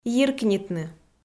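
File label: "йиркнитны", Komi, female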